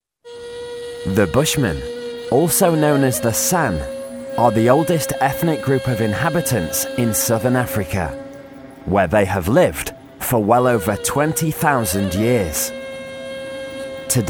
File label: narrative